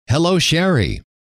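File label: "hello sherry", male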